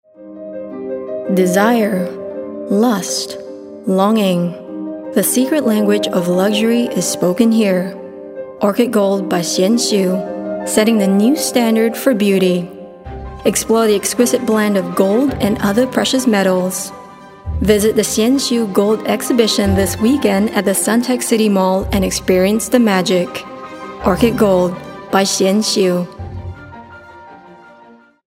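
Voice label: Asian English Luxury Jewelry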